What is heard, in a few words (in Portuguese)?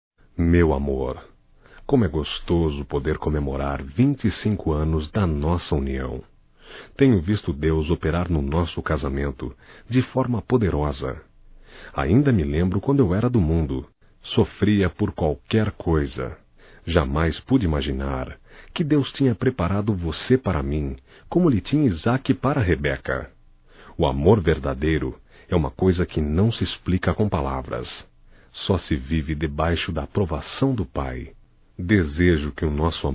Bodas de Prata Masculino; Bodas de casamento; Telemensagens 2017